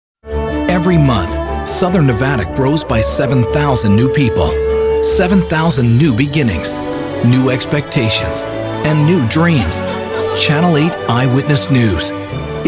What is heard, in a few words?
men tv affiliate; Bright, authoritative, real, approachable.